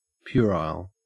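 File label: "puerile", Australian English; pronunciations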